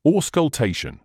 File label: "auscultation", medical english uk; ORS-kul-TAY-shuhn; ˌɔːs.kʌlˈteɪ.ʃən